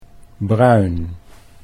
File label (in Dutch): "bruin", Dutch